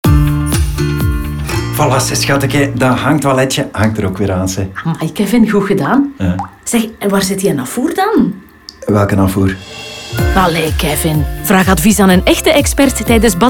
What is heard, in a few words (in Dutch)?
Radio NL Spot; Batibouw; Spons HLNieuws